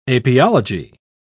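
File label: "apiology", British English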